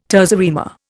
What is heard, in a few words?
"terza rima", Indian